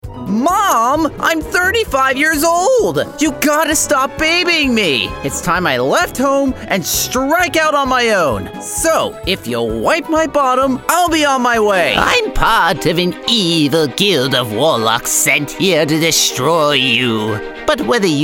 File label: Voice Actor; BigMouth Voices